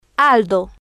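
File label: "aldo" Spanish, female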